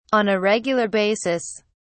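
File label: "on a regular basis", English, British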